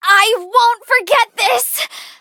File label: hit, en; Cookie Run